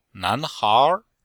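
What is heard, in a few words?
"nan2hair2", chinese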